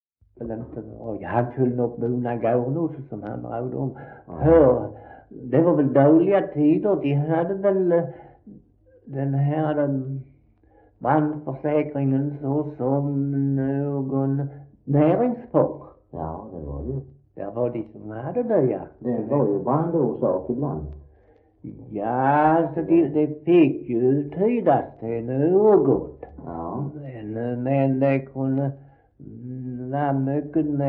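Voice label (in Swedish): Svensköp Skåne, Svenska sagor, dialekter; Folkminnen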